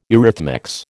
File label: "eurythmics", American